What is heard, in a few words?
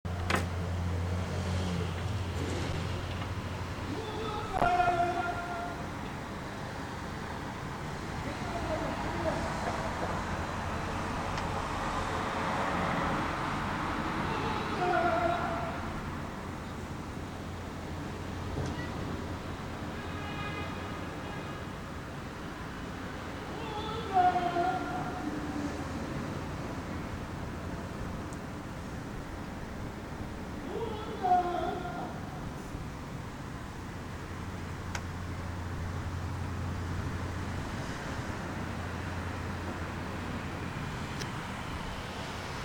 boza vendor